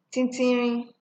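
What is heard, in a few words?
"tintinrin", Nigerian Pidgin, female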